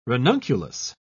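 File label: "ranunculus", British English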